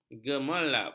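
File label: "gemerlap", Malay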